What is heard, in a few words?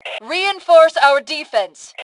Defend Reinforce, Female; T2 Female 03